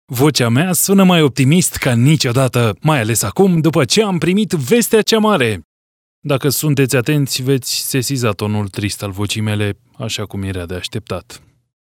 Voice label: Romanian male

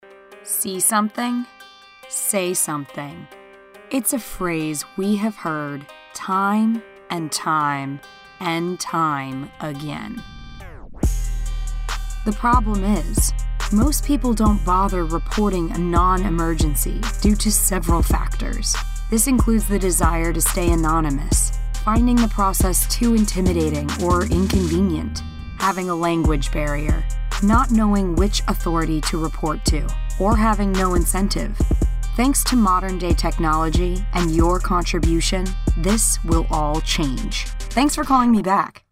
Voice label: English American

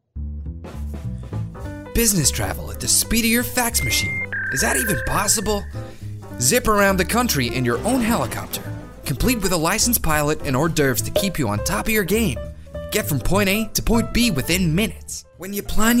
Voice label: English - British

